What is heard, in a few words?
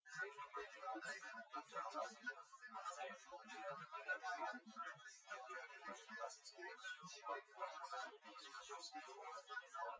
male; SiSEC08; SiSEC underdetermined